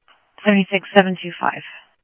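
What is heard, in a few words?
Mesa Police Department, scanner audio; MESA PD Superstn MesaPoliceDep; Mesa Simulcast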